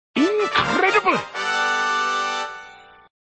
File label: Incredible with fanfare; Sallah Incredible with fanfare; Indy